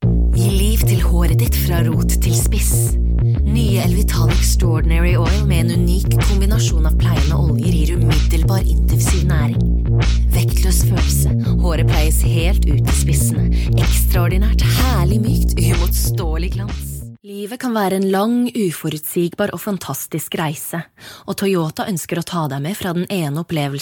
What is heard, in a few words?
Norwegian, Scandinavian, Female, Home Studio, Teens-30s, voiceover